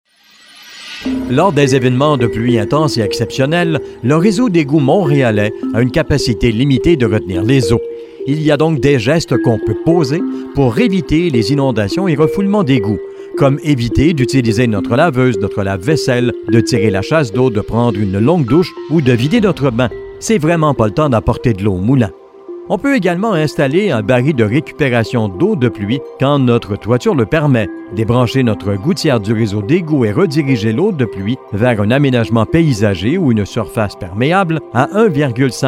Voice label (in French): pub gestion de eau; mtl